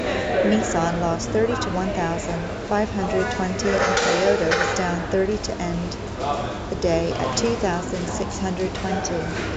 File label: real ped noisy